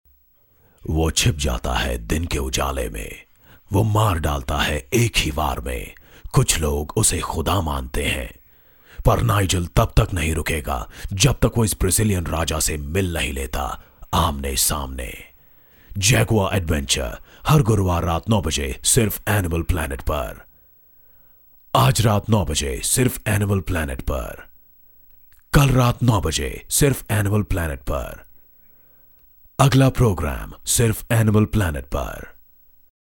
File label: bass, male voice; discovery